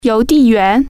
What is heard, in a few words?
"mail carrier", Chinese